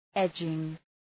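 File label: "edging", English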